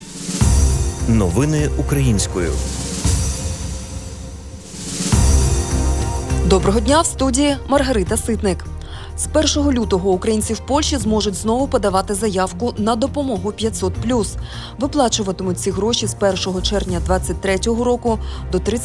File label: Ukrainian News